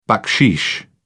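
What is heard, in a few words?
"baksheesh", British English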